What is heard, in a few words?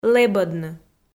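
"лэбӧдны" Komi, female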